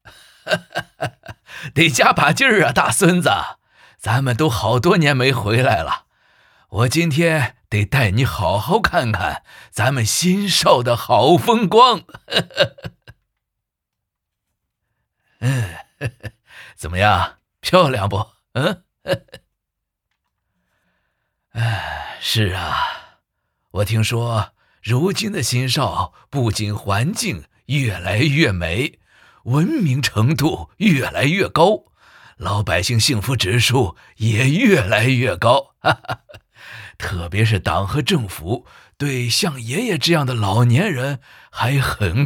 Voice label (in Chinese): 爷爷